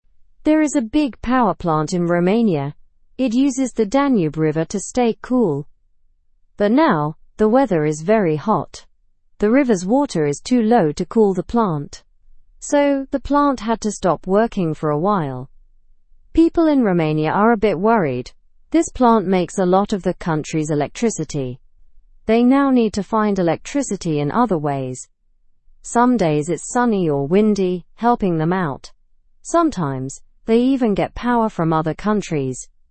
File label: learn english through news beginner normal; romania s nuclear plant closed due to heat